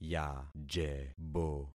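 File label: "yajebo", concatenated syllables; Affixing experiment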